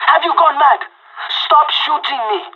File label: friendly fire, pirate; Counter Strike Model Pack; CS:GO